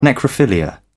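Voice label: "necrophilia", British English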